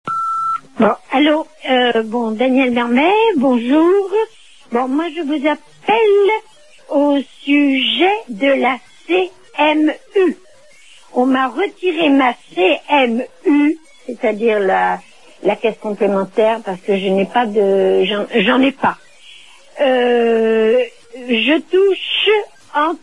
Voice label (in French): Suppression CMU repondeur